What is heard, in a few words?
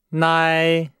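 "nai", Chinese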